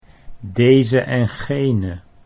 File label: "deze en gene", Dutch